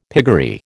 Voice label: "piggery", American

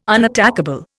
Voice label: "unattackable", Indian

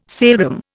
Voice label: "saleroom", Indian

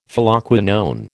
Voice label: "Phylloquinone", American

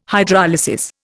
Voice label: "Hydrolysis", Indian

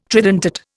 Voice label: "tridentate", Indian

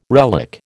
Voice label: "relic", American

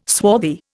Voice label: "swarthy", Indian

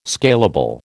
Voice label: "scalable", American

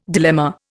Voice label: "dilemma", Indian

